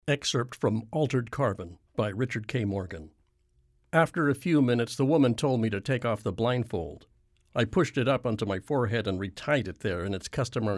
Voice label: Audiobook; Altered Carbon

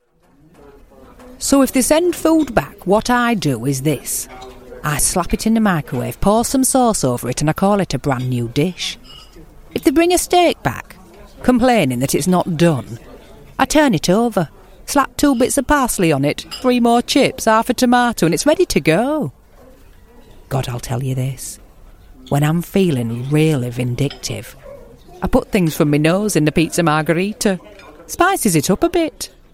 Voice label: Yorkshire

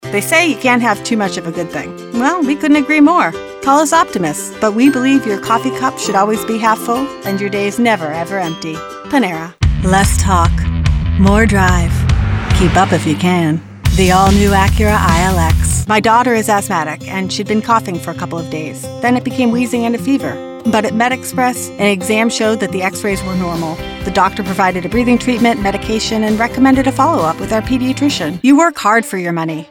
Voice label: Commercial

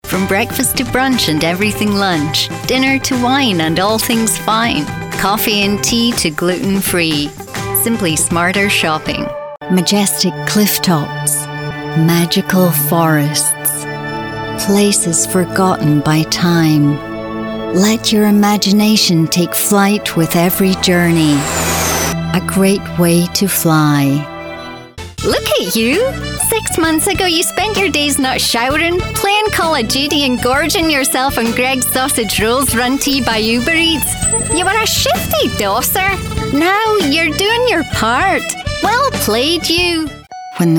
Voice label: Commercial

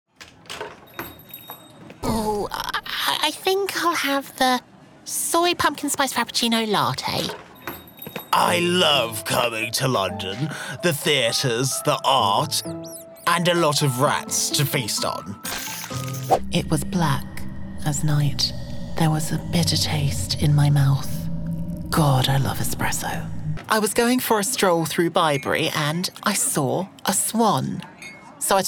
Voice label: Character

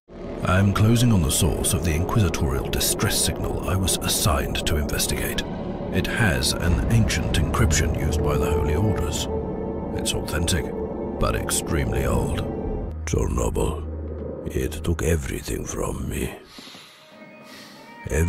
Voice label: Videogame Voice Over

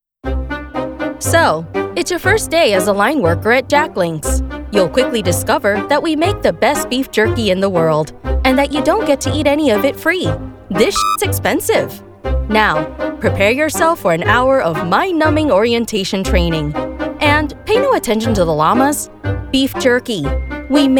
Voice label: E LEARNING

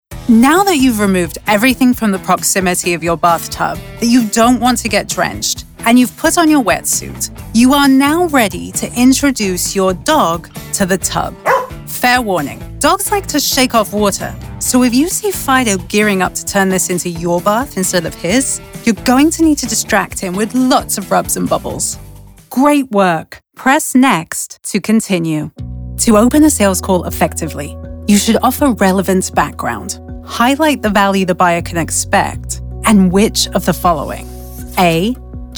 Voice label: eLearning

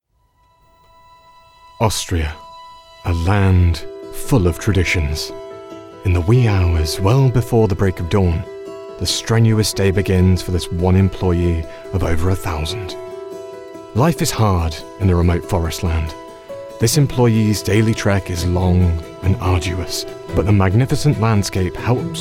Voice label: Narration

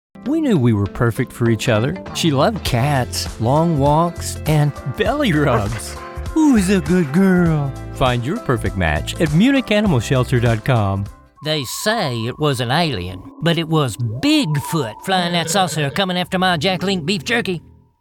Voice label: Commercial

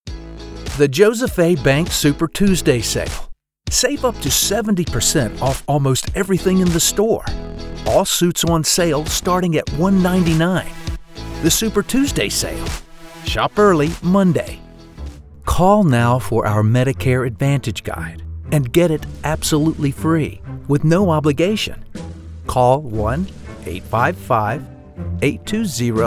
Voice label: Commercial

